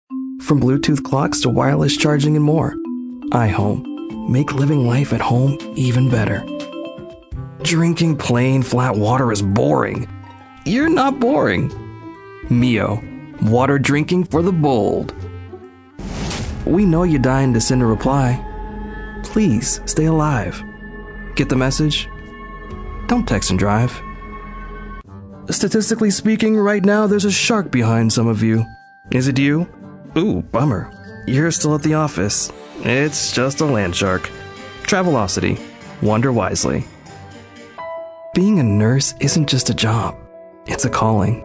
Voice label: Commercial

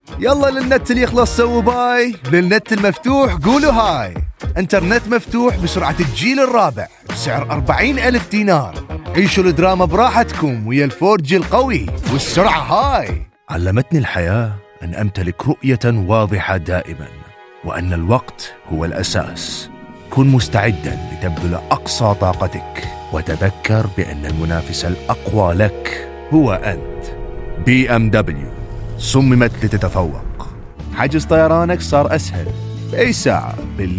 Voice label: Arabic Commercial

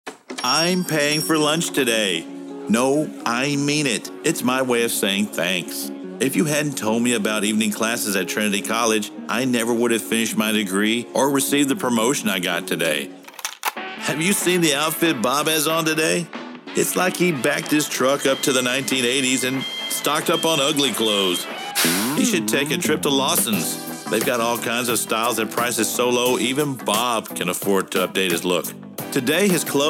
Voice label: Commercial

